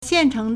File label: "xian4cheng2", Chinese